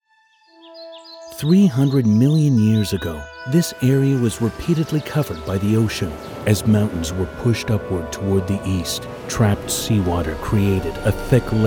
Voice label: VO narration